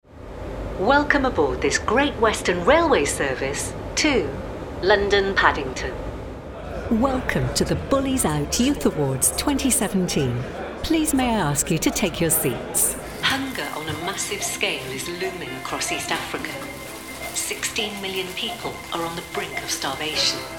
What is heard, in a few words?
Public Announcements